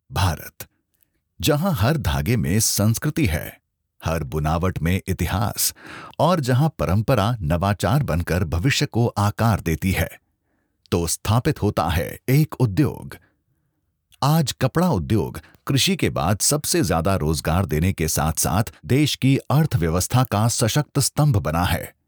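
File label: corporate hindi, Male Voice High Baritone Deep Voice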